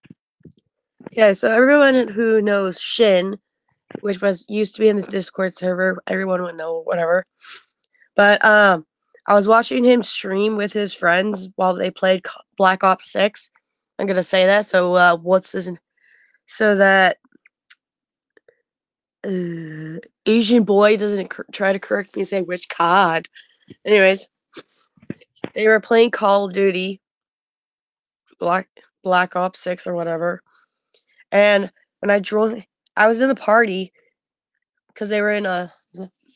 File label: voice message